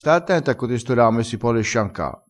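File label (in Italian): "sciancassi", dialettale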